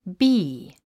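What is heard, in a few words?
"bee", English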